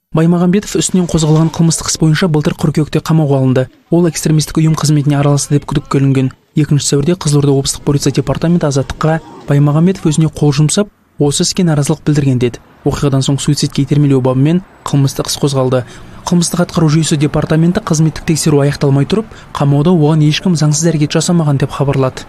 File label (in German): kasachisch, Sprachen